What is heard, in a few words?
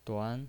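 "duan" Mandarin Chinese, second tone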